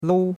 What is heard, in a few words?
"lou1", chinois; Cours de Chinois